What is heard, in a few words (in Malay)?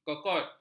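"kokot", Malay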